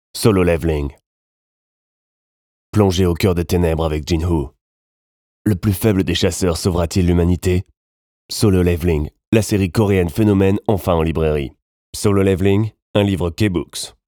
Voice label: french

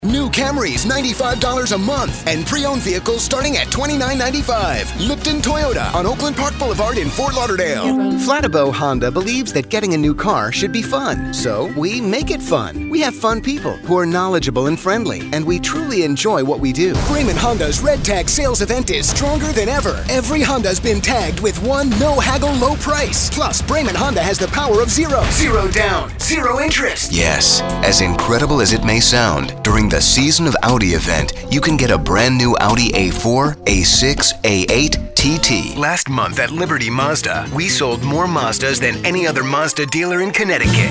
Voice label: english american automotive

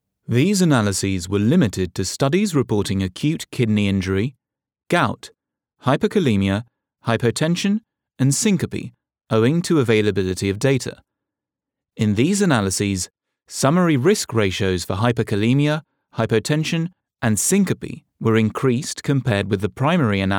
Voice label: english british medical narration

